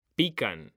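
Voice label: "pican", Spanish, male; mekanta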